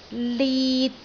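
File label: "lit3", Cantonese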